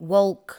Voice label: "wolk", english